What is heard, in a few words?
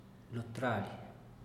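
"lo traly", Teotitlán del Valle Zapotec; sobre el telar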